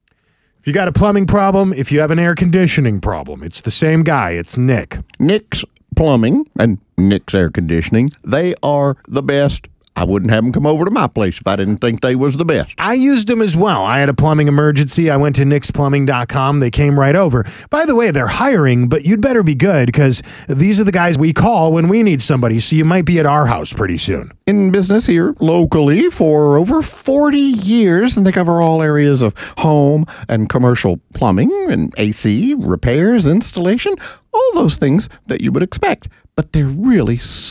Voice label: RADIO AD; nicks plumbing radio ads